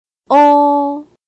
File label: "ㄛ", Chinese